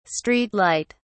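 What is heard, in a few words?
"street light", English, British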